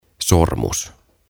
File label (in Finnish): "Sormus", "Ring"; OTAVA KONSERNI; tuhatsanaa; aantamisohjeet suomeksi